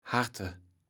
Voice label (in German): "Harte", Platt